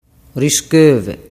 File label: "riscove", dialettu